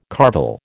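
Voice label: "carvel", American English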